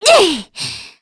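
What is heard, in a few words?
Vox Casting, Korean; Aselica; kingsraid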